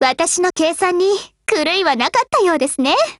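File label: WIN Japanese, Voice; GF Alarm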